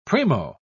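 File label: "primo", British English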